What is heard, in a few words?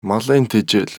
mongolian Male villager; age2